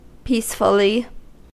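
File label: "peacefully" English, US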